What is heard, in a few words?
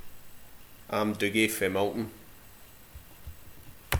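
"fae", Scottish slang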